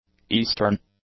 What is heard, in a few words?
"eastern", English; adjectives